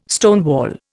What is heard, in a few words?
"stonewall", Indian